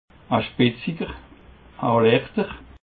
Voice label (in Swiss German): "spretziger", alsace